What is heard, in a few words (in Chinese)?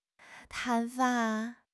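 "砍伐", Chinese; HSK6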